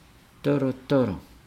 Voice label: "move from pl to pl dolodolo", Nukuoro